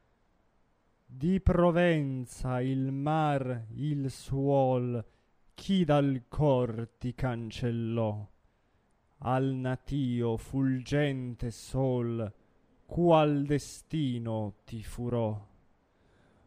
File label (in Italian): espressivo; Traviata; Di provenza il mar e il suol